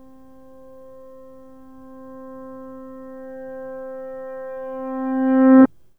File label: reverse; An auditory analog to spatial inversion is temporal